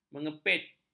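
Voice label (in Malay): "mengepit", Malay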